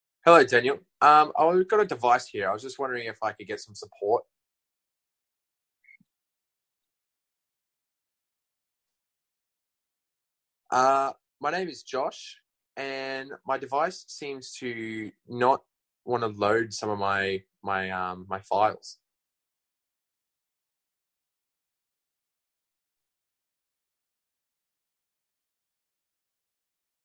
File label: stereo call channel 1